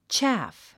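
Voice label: "chaff", English; tʃǽf チャァフ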